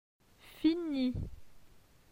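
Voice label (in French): "Fini", French; phonetique; les consonnes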